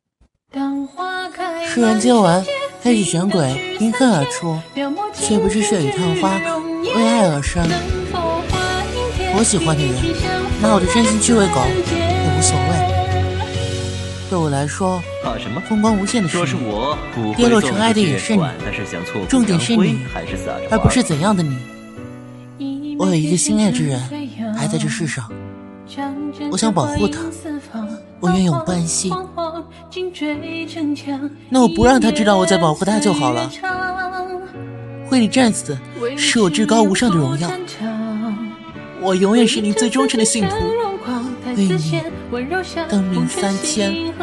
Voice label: 小男孩

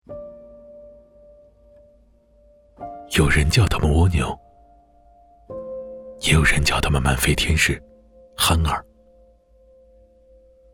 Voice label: 公益广告 低沉 叙述

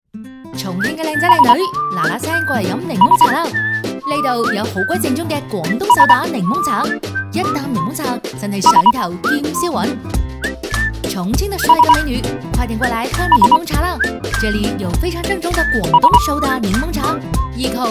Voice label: 粤语广告促销 粤普; 重庆

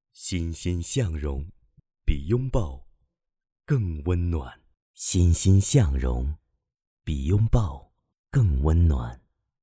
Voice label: 广告语试音男

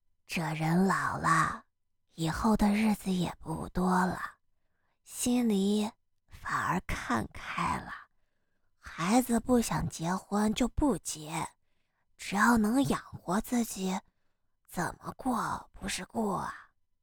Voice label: 老年音

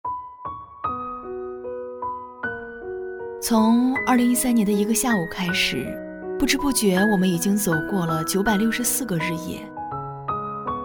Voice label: 独白品牌奶粉

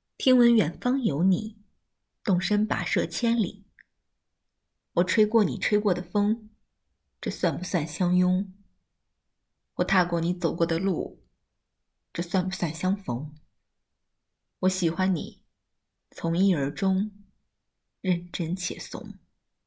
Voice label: 短视频配音御姐

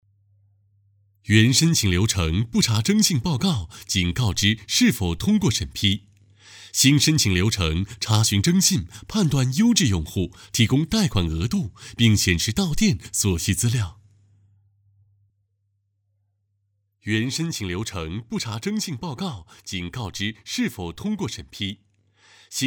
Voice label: 配音金融

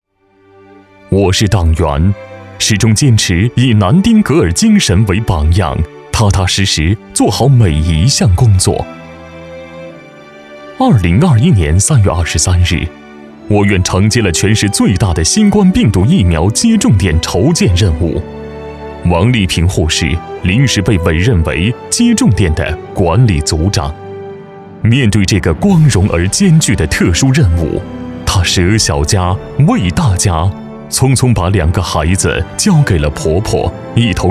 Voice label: 颁奖词混干样音; 党员护士颁奖词混干样音

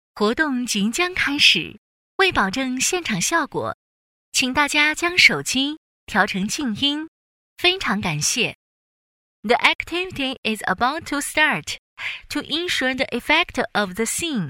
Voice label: 广告 中英; 小米

